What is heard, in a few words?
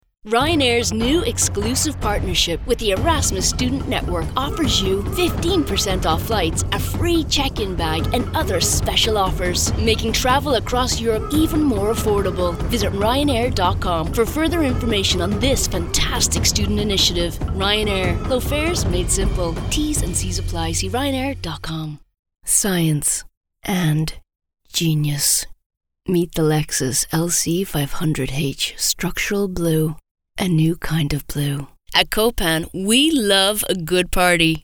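English UK, female, mix; Fremdtake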